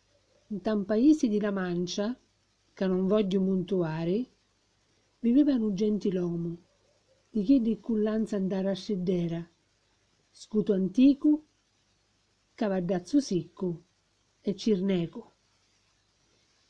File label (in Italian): catanese; Chisciotte